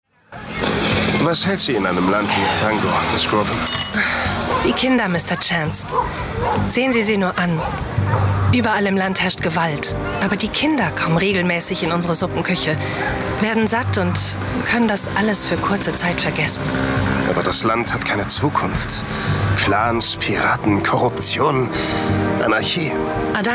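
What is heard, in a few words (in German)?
Hörspiel; Thriller; Infinity 6 Anarchie; Holysoft; Nancy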